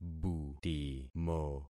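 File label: "butimo", concatenated syllables; Affixing experiment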